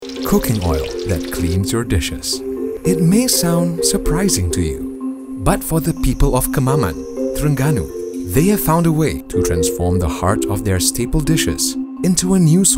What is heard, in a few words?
ENG, Commercial Storytelling, Conversational, Firm; ENG : Petronas Planting Tomorrow Commercial Storytelling, Conversational, Firm